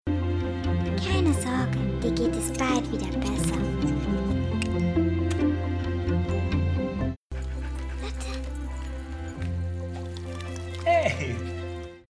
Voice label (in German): tv-spot; Kindersprecher; barbie